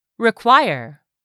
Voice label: "require", English; 3000 words